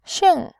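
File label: "xing", Mandarin Chinese, tone 4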